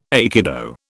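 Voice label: "aikido", British English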